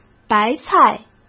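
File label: "白菜", Mandarin Chinese; chinese-flashcards; hsk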